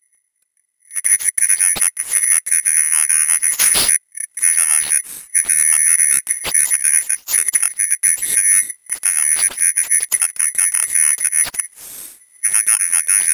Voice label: Unintelligible Radio Chatter